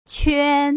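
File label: "quan", Putonghua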